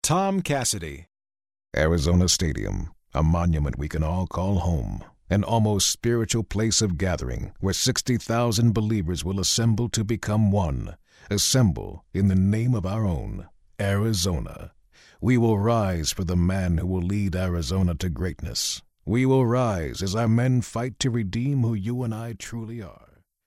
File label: DRAMATIC